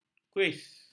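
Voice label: "kuis", Malay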